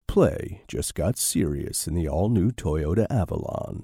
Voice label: Cool sophisticated mysterious